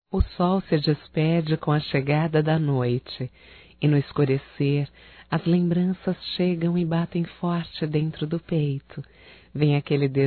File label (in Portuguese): ROMANTICA(F), Românticas, fem